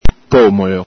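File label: "pomolo", Venetian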